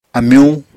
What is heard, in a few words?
"amyuñ", Apatani